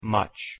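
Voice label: "much", English pronunciation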